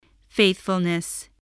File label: "faithfulness", voice